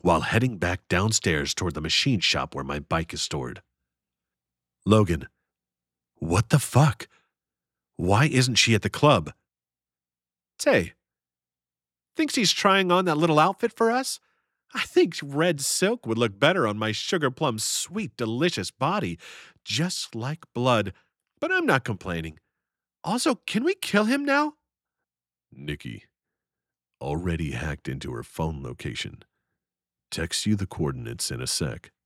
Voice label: 4 Characters, multi character dialogue